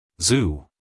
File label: "zoo" us male; everyone-can-use-english